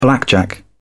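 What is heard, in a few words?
"blackjack", British English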